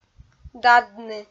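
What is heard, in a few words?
"дадны", Komi, female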